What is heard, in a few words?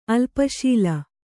"ಅಲ್ಪಶೀಲ", kannada